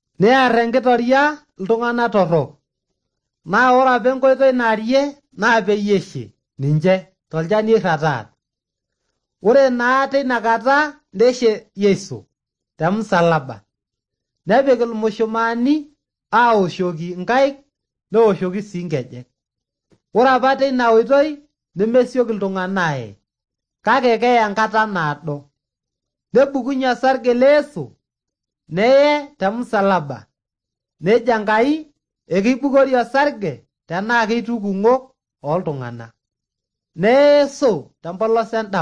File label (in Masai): maasai bsv nt drama; good news; bible